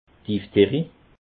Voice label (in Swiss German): "diphtherie", alsace